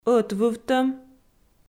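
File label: "ӧтвывтӧм" Komi, female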